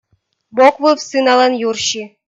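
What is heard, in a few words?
"боквыв сыналан юрси", Komi, female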